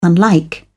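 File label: "unlike", English, British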